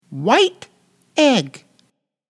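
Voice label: "white egg", English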